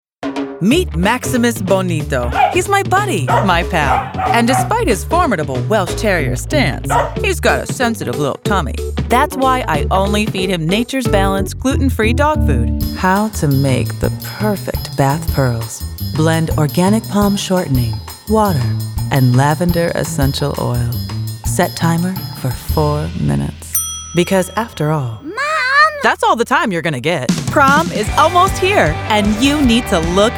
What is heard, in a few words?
Commercial